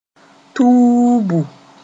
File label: "tuubu", moore